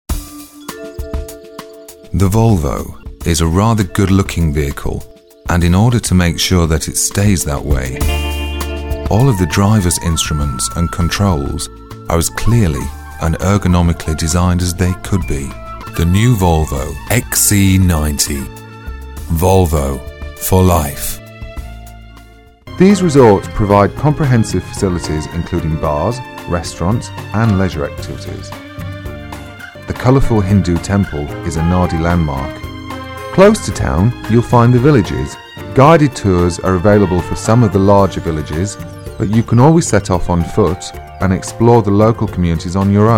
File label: English British Voice Over; Demo